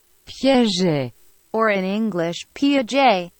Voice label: Piaget Pronunciation